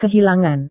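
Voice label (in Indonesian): "kehilangan", Indonesian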